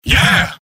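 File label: "yes", robot; mvm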